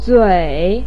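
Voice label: "zui3", Mandarin Chinese, pinyin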